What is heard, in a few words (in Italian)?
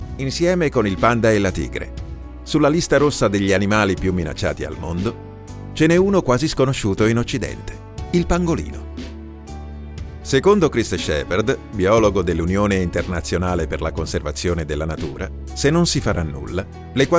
Documentario natura estratto